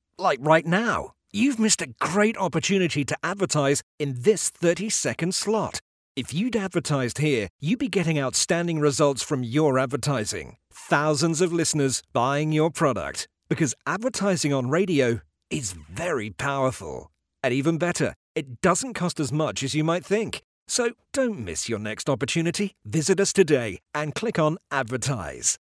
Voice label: male british voice over sales, english british

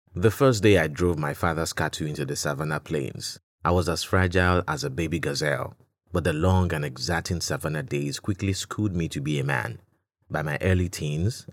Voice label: male african voice over narrative

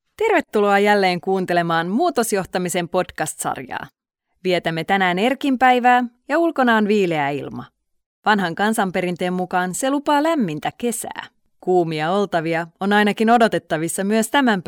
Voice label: female finnish voice over character

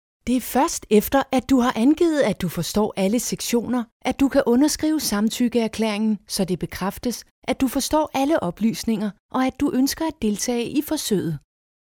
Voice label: female danish voice over narrative

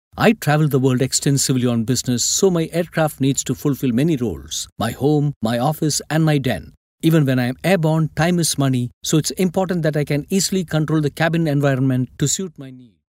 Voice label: male indian voice over talent character

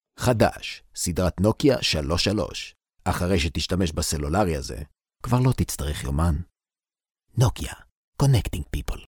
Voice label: male hebrew voice over sales

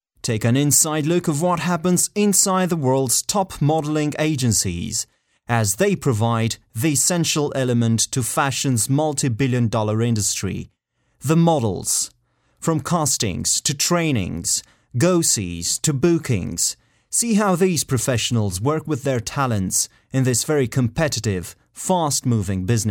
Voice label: male romanian voice over talent english

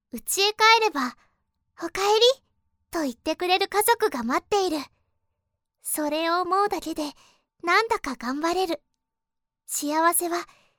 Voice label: female japanese voice over character

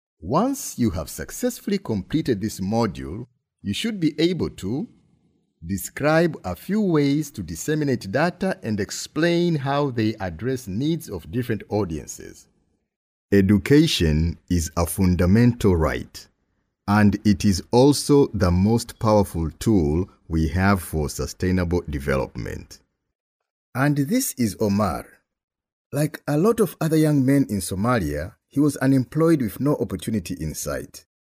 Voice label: male swahili voice over english

